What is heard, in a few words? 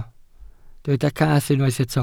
"cath", Welsh